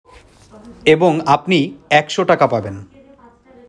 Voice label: male